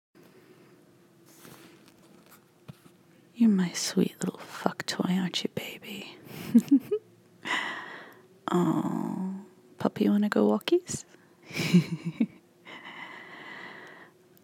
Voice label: 'Voice reveal’ Domme edition